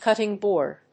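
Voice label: "cutting board", English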